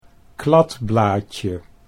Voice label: "kladblaadje", Dutch

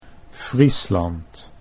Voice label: "Friesland", Dutch

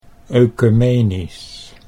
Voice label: "oecumenisch", Dutch

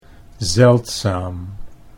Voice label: "zeldzaam", Dutch

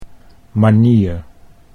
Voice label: "manier", Dutch

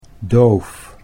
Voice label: "doof", Dutch

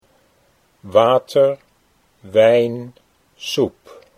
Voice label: "water wijn", Dutch

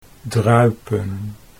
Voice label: "druipen", Dutch

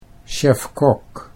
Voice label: "chef kok", Dutch

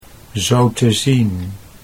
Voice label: zo te zien, Dutch

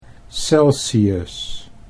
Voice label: "Celsius", Dutch

